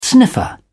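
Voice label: "sniffer", British English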